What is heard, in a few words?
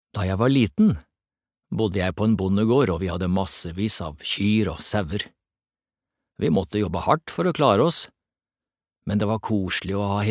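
Norwegian dub man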